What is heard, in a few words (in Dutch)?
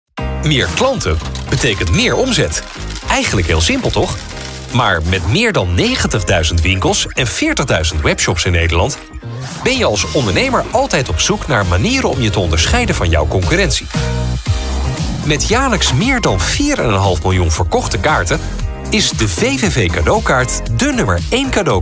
Nederlandse voice over stemacteur man